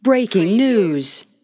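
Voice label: News Female Breaking News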